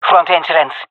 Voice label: jungle fem epic; vo; agents; Source Model Packs; Counter Strike Model Pack; CS2